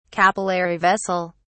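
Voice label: "capillary vessel", British English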